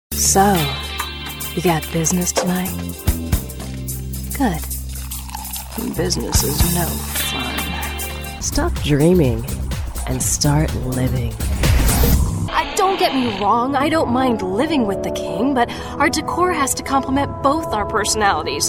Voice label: Commercial